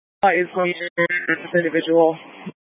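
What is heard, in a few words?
Incident Tac 2, Scanner audio recording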